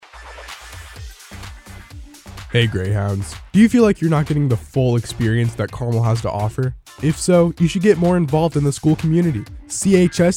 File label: PSA